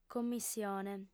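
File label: "commissione", female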